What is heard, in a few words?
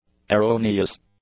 "erroneous", English; adjectives